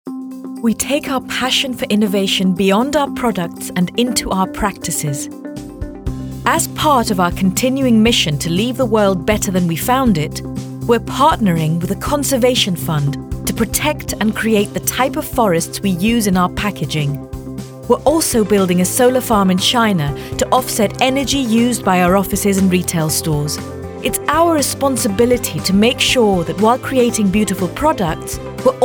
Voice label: Corporate